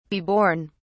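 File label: "be born", English, British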